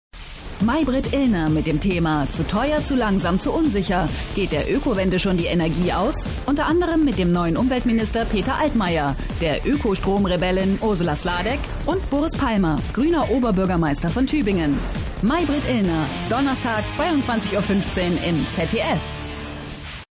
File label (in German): trailer; zdf